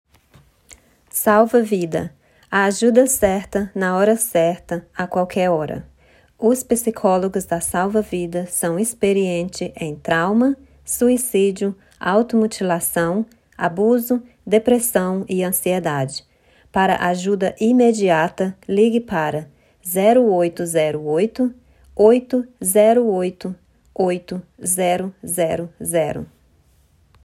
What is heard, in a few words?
Portuguese recording